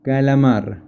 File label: Squid spanish